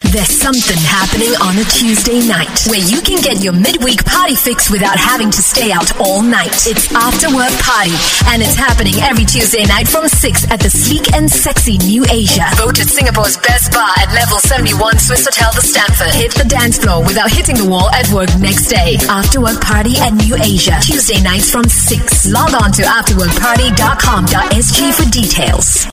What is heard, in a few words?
Edgy, English Singaporean Female; AfterWorkParty